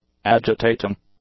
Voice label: "agitating", English; adjectives

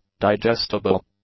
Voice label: "digestible", english; adjectives

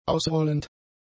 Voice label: "ausrollend", German; adjectives

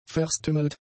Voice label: "verstümmelt", German; adjectives

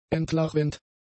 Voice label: "entlarvend", German; adjectives

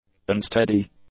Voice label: "unsteady", english; adjectives

